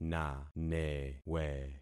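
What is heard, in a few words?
"nanewe", concatenated syllables; Affixing experiment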